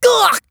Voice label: nekketsu damage, voice